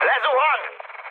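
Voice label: Hungarian aircraft destroyed mood high, speech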